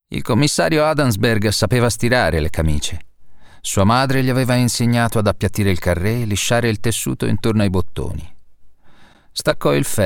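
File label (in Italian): audiolibri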